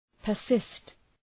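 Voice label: "persist", English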